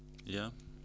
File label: ingressive male; JIPA 2008